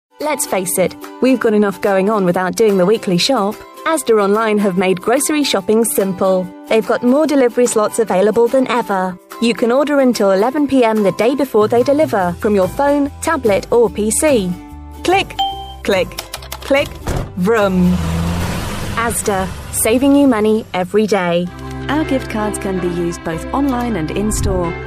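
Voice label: English British, female, commercial; voice demos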